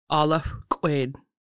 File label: Clock what time is it "ʔaləxʷk̓ʷid", Lushootseed